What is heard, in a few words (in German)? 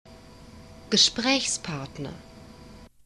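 "gespraechspartner", German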